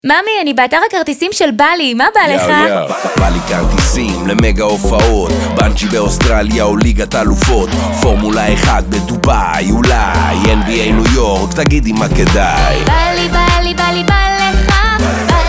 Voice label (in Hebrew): פתיח אישה